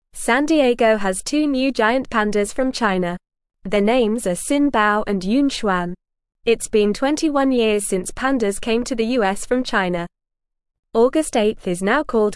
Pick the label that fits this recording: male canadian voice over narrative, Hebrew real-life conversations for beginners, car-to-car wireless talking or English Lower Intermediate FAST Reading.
English Lower Intermediate FAST Reading